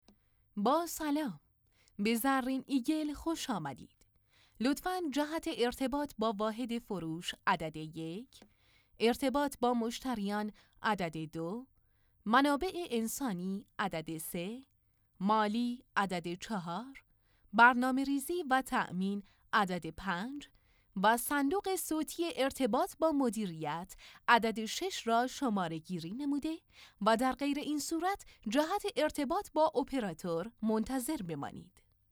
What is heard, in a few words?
IVR